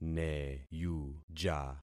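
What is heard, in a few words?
"neyuja", concatenated syllables; Affixing experiment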